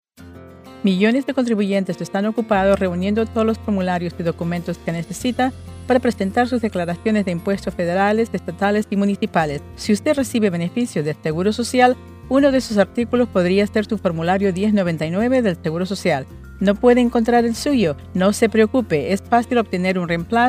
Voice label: request a replacement 1099 spanish

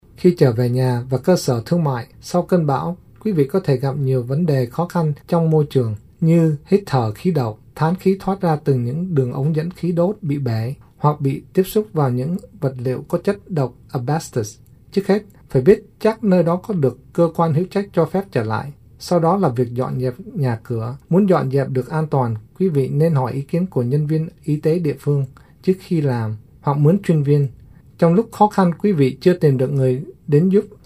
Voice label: vietnamese; region6; disaster; coming home

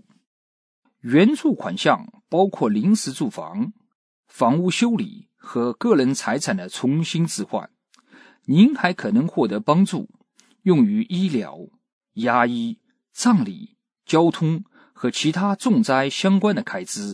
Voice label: FEMA Chinese

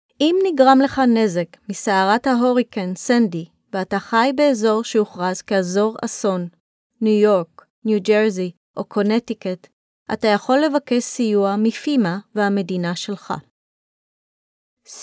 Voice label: Hebrew; FEMA

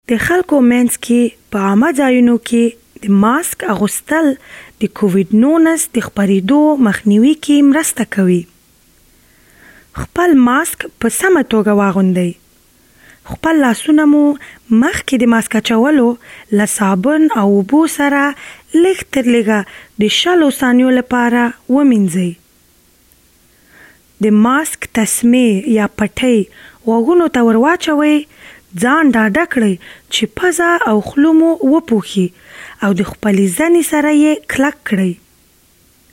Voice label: PSA Pashto How to Wear a Mask

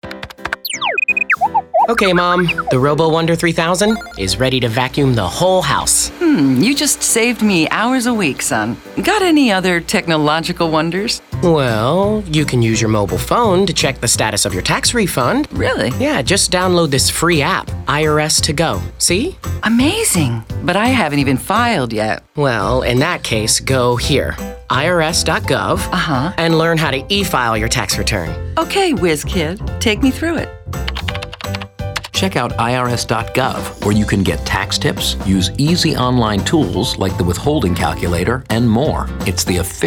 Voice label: radio

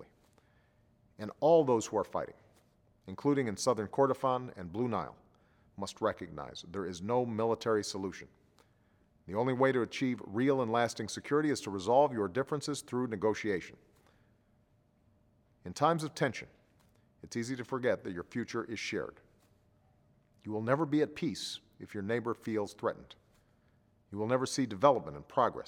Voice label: sudan message english; potus

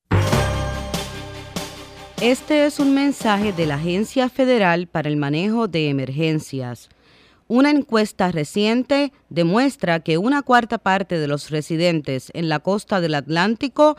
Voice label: Hurricane PSA; fema; ESP

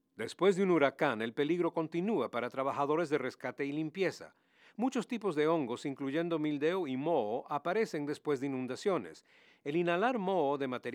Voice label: spanish; mold; Hurricane Facts

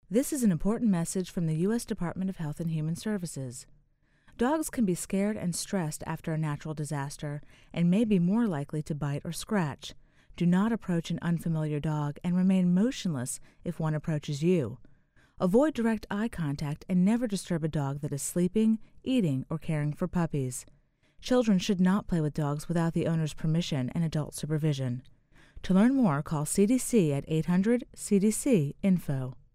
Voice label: psa disaster dog bites female voice

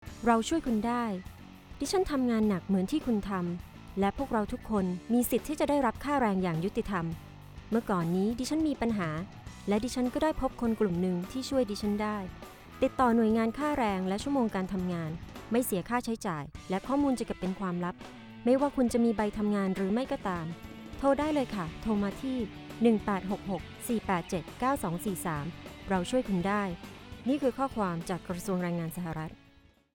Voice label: Worker Thai

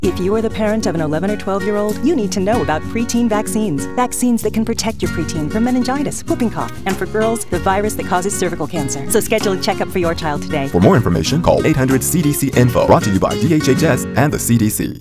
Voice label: Radio English; vaccines; preteens-adol; PSAs

